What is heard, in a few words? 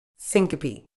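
"syncope", British English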